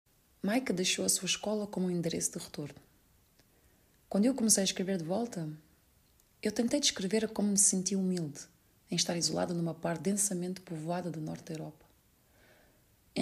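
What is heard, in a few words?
Portuguese voice over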